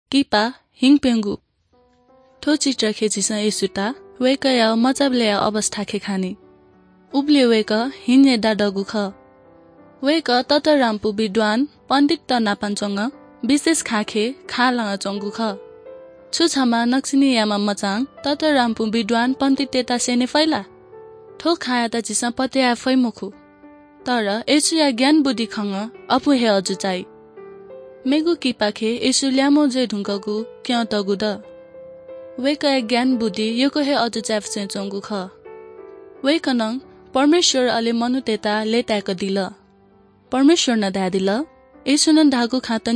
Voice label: good news newari bhaktapur

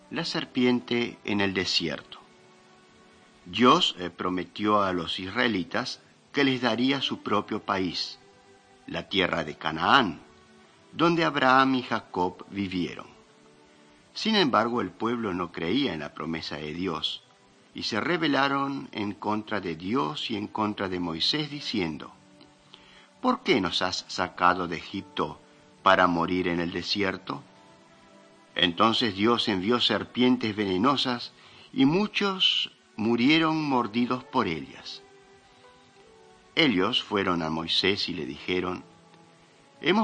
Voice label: mighty men of god spanish latin america

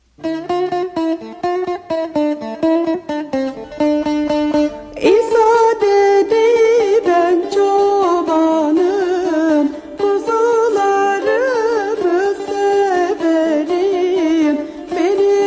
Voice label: words of life, turkish

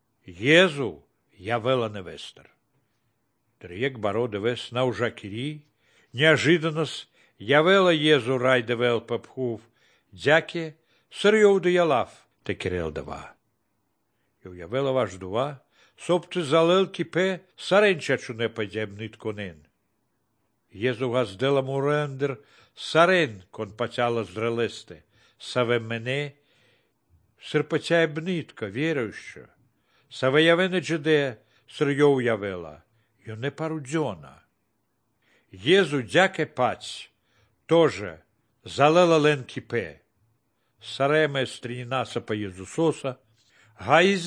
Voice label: good news baltic romani

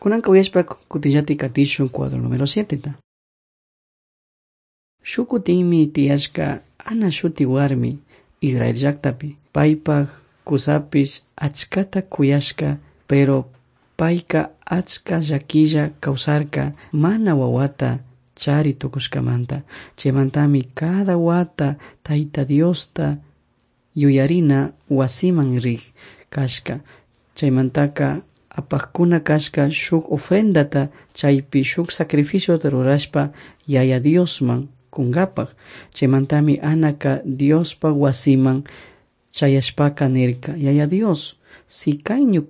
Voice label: servants of god quichua chimborazo

